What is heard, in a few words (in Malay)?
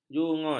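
"jungut", Malay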